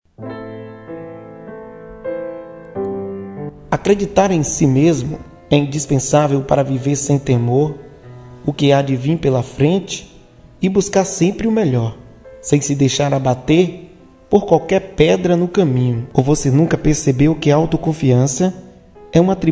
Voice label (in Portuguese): locutor mesagem